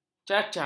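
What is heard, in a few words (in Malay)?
"cancang", Malay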